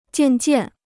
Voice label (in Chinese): "渐渐", Chinese